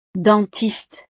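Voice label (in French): "dentiste", French; profesiones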